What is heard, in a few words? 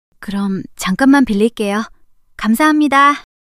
shy; monologue